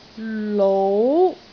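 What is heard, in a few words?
"lou4", Cantonese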